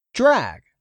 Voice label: "DRAG", English; 3000 words

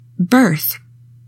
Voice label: "BIRTH", english; 3000 words

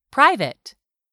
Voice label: "PRIVATE", English; 3000 words

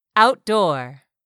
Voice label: "outdoor", English; 3000 words

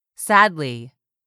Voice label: "sadly", English; 3000 words

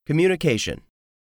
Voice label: "COMMUNICATION", english; 3000 words

